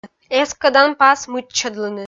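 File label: "эскӧдан пас мыччӧдлыны", Komi, female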